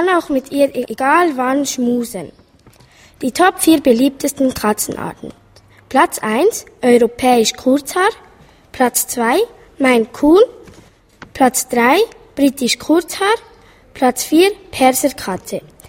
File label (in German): Reportage Hauskatze; Schule Reiden LU